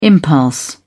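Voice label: "impulse", British English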